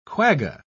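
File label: "quagga", English, British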